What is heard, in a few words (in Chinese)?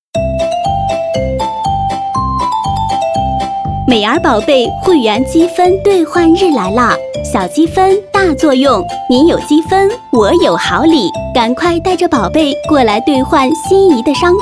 女 促销 温柔 音乐